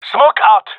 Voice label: throwing smoke, male; vo